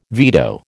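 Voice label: "veto", American English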